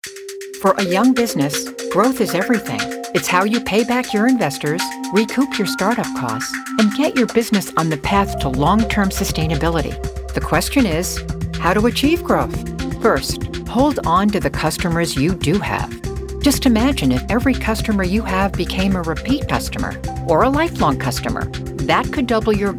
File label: Narration